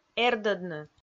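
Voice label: "эрдӧдны", Komi, female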